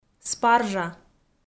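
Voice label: "спаржа", Komi, female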